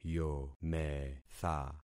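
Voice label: "yometha", concatenated syllables; Affixing experiment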